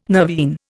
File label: "nervine", Indian